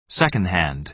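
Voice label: "second hand", American English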